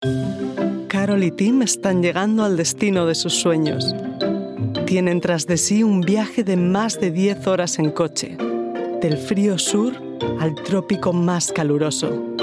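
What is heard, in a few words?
Documentary Spanish Europe; GoLocalise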